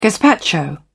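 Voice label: "gazpacho", British English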